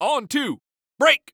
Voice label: Sports Human Voice Male Football Quarterback; On Two Break